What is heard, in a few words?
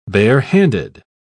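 "barehanded", British English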